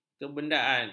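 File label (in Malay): "kebendaan", Malay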